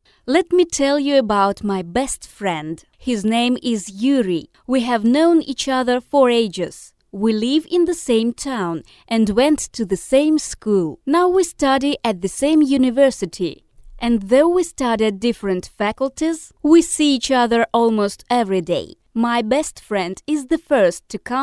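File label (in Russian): английский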